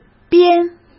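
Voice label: "编", Mandarin Chinese; chinese flashcards; hsk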